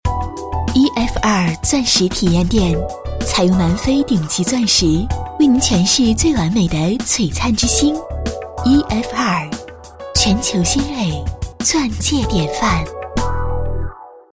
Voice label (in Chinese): 女 广告 钻石体验店; EFR钻石体验店